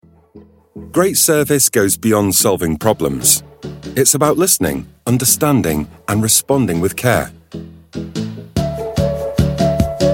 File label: training video